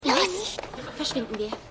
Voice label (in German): Maedchen; Keine Gnade Fuer Dad